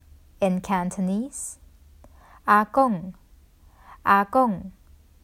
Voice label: "Ah Gung 阿公" Cantonese; The Duke Who Didn’t: Glossary & Pronunciation Guide